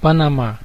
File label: "panama", pt_BR; gcompris; geography